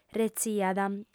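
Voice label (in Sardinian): "retziat", female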